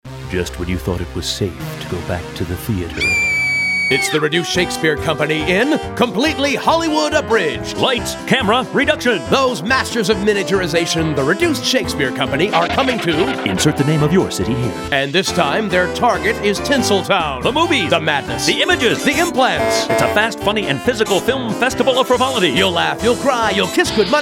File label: Radio Spot